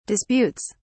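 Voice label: "disputes", British English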